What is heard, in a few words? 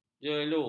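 "jelu", Malay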